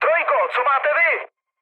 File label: chat, speech Czech; War Thunder